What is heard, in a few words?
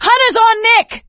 hunter pounced, survivor voice; video game; source engine; left 4 dead 2; producer